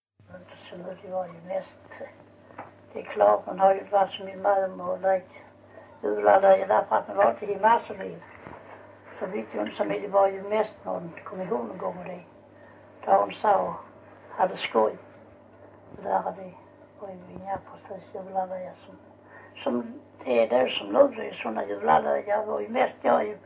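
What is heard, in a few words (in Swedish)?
Ravlunda Skåne, svenska sagor dialekter; Folkminnen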